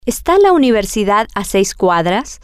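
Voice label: is the university six blocks away, Spanish, female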